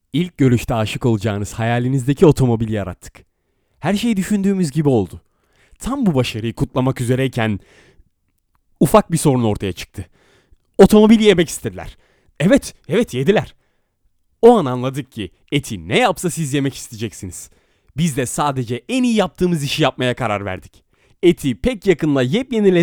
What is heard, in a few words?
turkish male